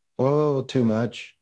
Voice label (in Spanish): Voice Acting es M